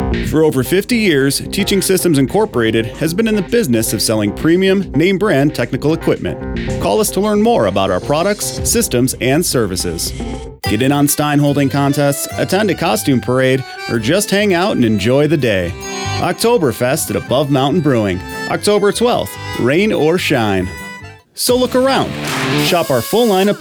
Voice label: Commercial